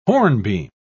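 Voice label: "hornbeam", British English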